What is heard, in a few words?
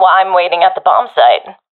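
guarding bombsite, professional female; vo; Counter Strike Model Pack; CS:GO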